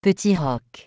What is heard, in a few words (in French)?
"Castle K", French; castle kingside; petit roque